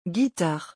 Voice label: "guitare", French; pronunciation; hard and soft vowels